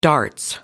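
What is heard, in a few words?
"darts", English, British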